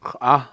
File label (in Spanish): "árbol", Cucapá